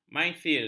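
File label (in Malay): "maisir", Malay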